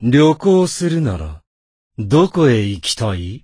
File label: voice, dubbing